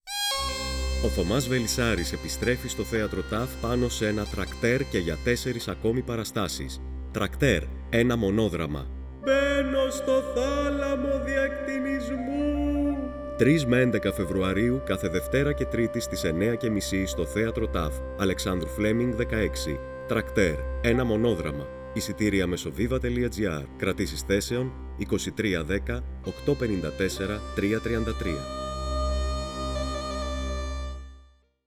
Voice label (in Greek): ΤΡΑΚΤΕΡ RADIO SPOT